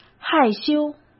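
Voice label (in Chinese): "害羞", Mandarin Chinese; hsk; chinese-flashcards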